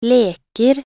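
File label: "leker", Norwegian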